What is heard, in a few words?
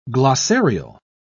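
"glossarial", English, British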